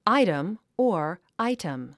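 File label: "item", English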